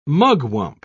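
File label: "mugwump", British English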